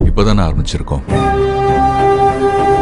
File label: trailer dialogue tones; ajith; aarambam